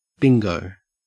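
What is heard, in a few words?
"bingo", Australian English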